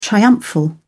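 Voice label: "triumphal", British English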